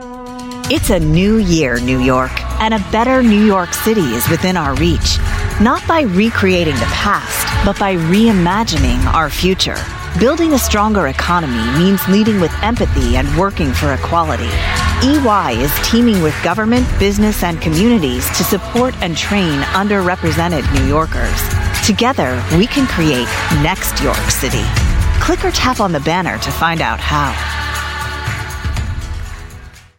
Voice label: US English PSA; NYC